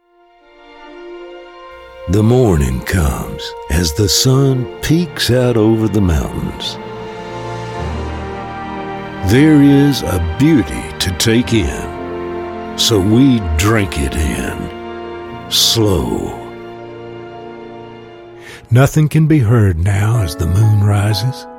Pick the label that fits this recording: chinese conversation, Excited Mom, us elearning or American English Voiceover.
American English Voiceover